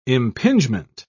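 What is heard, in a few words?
"impingement", British English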